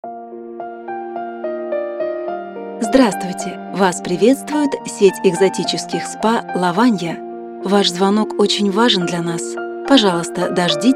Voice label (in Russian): IVR спа салона диктор; Лаванья